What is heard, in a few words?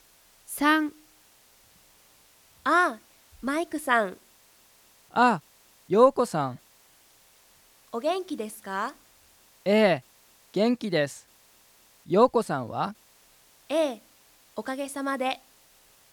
Conversation